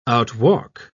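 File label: "outwalk", English, British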